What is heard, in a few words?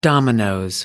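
"dominoes", British English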